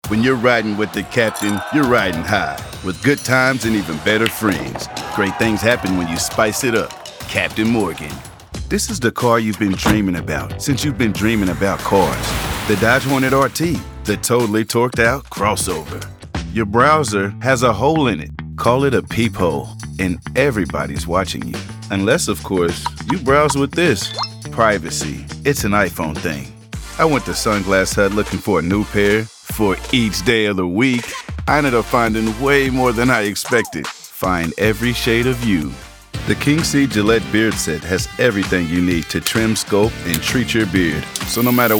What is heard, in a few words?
Commercial